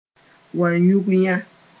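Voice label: "Wayugyã", Mooré; Ouahigouya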